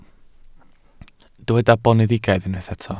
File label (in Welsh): "boneddigaidd", Welsh